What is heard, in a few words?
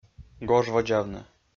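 "гожводзавны", Komi, male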